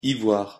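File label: "ivoire", French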